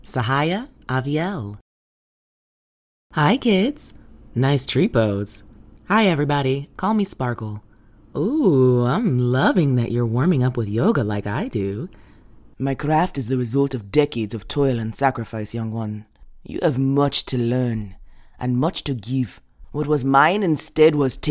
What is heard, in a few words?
animation demo